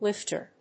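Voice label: "lifter", English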